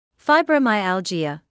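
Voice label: "fibromyalgia", British English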